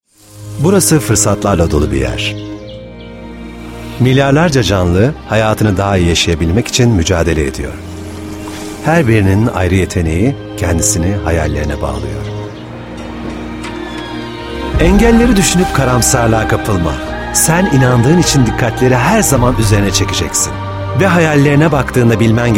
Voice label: reklam

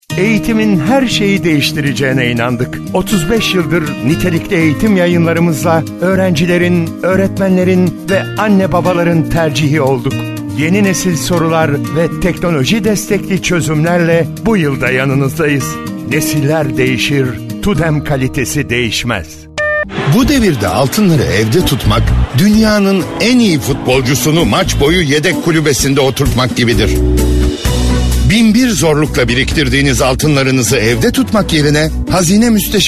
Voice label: reklam

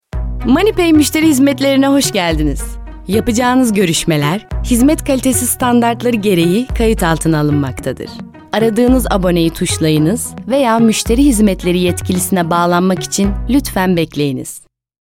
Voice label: santral, santral seslendirme

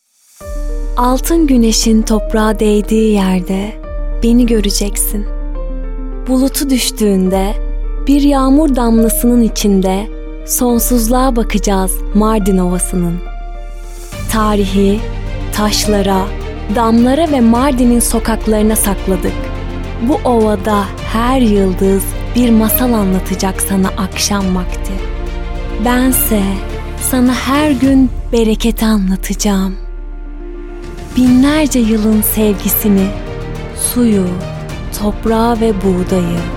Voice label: tanitim; tanitim filmi seslendirme

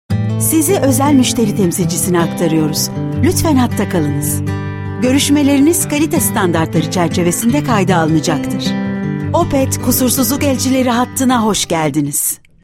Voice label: santral seslendirme

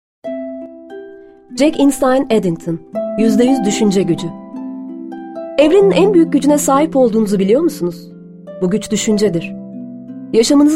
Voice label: kitap, Sesli Kitap Okumaları; Kitap Seslendirme; BiberSA Prodüksiyon

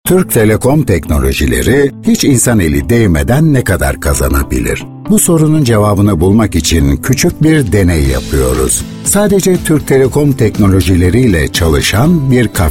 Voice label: reklam, voice over; Voice Over Agency; BiberSA Production

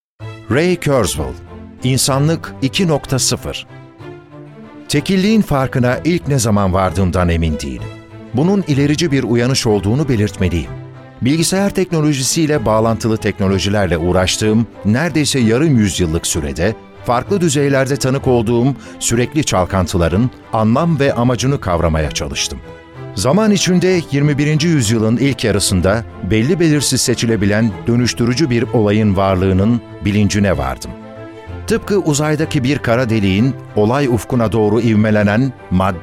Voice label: kitap, kitap seslendirme

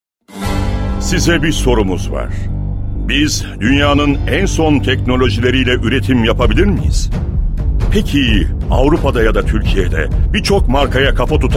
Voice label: reklam, Profesyonel Seslendirme; BiberSA Prodüksiyon

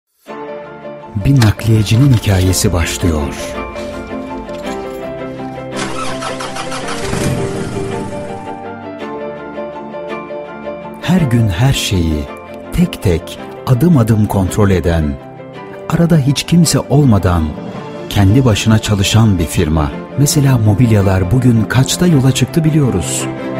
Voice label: tanitim

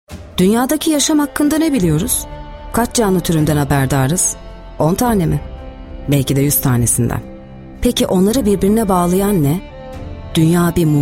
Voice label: belgesel

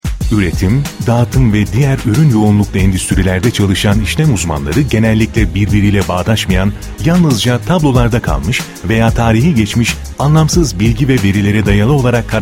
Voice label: tanitim, tanitim filmi seslendirme

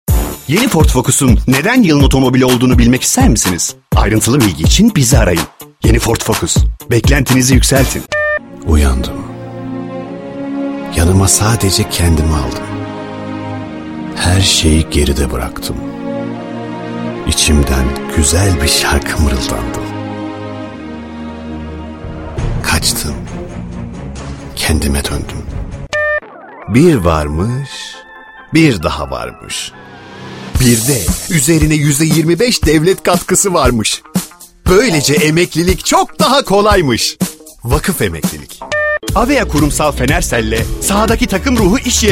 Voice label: reklam

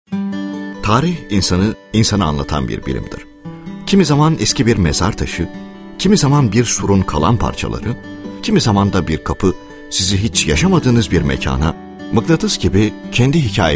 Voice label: belgesel

